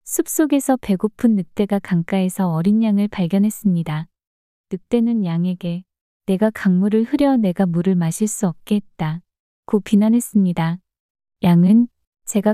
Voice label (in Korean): 이야기 여자; 늑대와 양